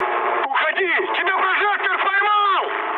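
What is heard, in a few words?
searchlite, mood high, Russian; War Thunder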